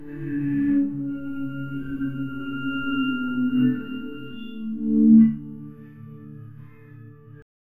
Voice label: childlike robotic voice